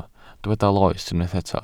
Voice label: "loes", Welsh